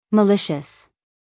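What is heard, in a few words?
"malicious", American English, female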